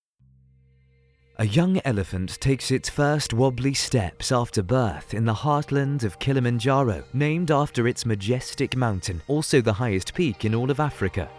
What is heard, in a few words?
Documentary UK